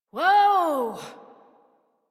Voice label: Horse Stop Walk Adult; Warframe; Duviri